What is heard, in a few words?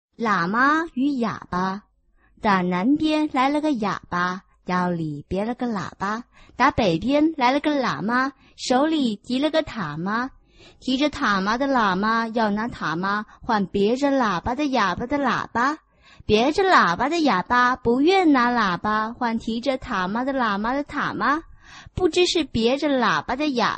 chinese tongue twister